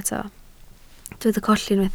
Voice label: "colli", Welsh

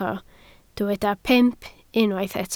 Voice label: "pump", Welsh

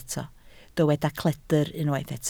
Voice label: "cledr", Welsh

